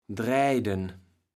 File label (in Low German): "draejden", kaevels platt